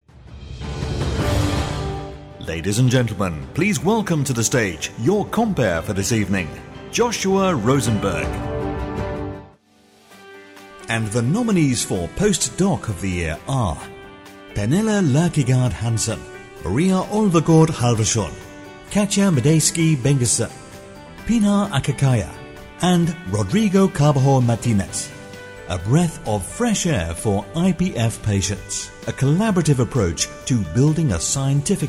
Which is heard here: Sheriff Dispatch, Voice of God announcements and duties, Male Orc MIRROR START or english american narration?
Voice of God announcements and duties